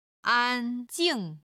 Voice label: "an1jing4", chinese